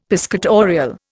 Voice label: "piscatorial", Indian; Dictionary

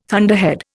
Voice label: "thunderhead", Indian

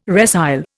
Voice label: "resile", Indian

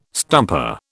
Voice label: "stumper", British

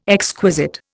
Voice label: "exquisite", Indian

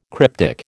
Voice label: "cryptic", American

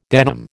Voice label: "denim", American

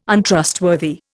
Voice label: "Untrustworthy", Indian

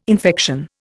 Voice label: "infection", Indian

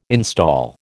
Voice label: "install", American

